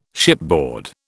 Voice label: "shipboard", British